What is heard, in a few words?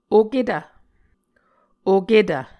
"oketv", Muscogee